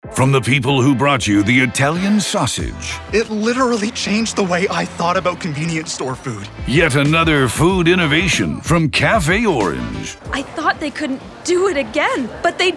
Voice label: Radio Spot; North Atlantic